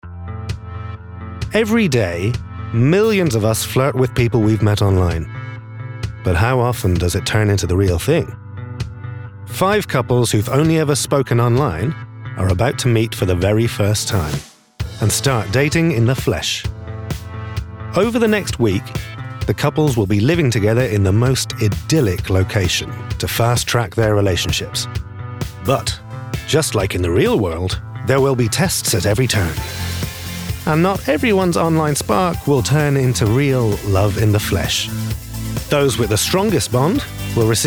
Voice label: doco